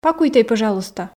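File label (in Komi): пакуйтӧй пӧжалуйста, Komi, female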